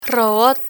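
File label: "robot", Spanish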